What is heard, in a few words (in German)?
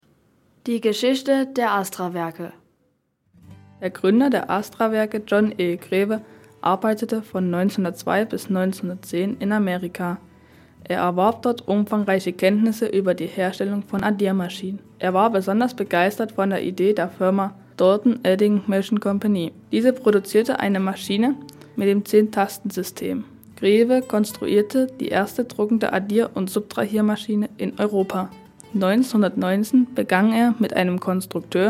Audioguide; Astrawerke